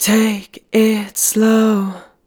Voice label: "Take it slow", Male Vocals, Phrases - C Major 150BPM